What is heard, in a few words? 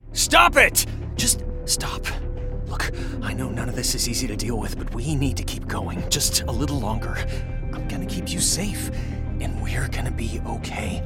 animation men, voices men